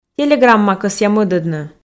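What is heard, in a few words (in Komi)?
телеграмма кӧсъя мӧдӧдны, Komi, female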